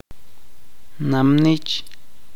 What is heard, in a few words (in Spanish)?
mariposa, chuj